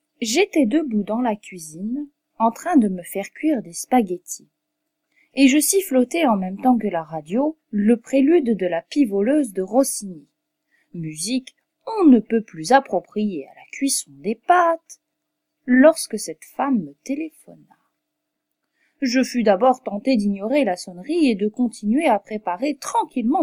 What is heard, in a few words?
story